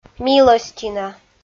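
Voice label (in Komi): "милӧстина", Komi, female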